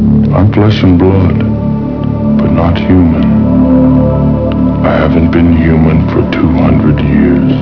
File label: flesh n blood, Halloween Voices